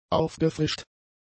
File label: "aufgefrischt", German; adjectives